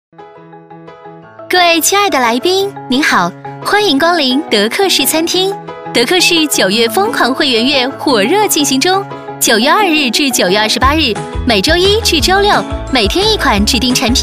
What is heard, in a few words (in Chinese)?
活力 样音 风格; 德克士